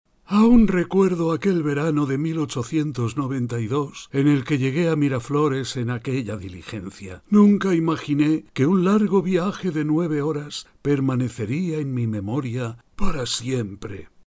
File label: spanish senior voiceover locutor anciano; voces ancianos; locutores ancianos